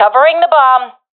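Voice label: guarding live bomb, professional female; vo; Counter Strike Model Pack; CS:GO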